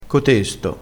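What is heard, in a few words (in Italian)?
"cotesto", vocabolario dialettale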